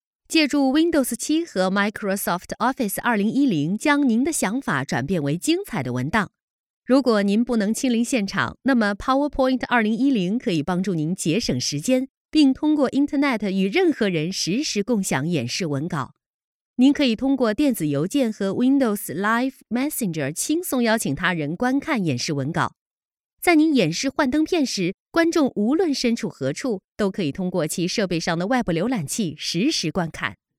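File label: Chinese mandarin, female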